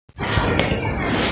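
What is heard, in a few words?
"jue2wu4", Mandarin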